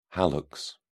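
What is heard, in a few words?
"hallux", British English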